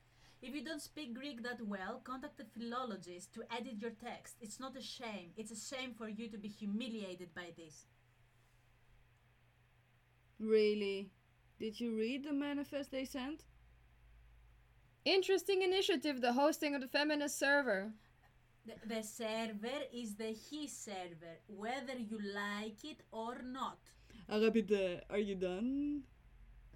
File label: Sample radiodrama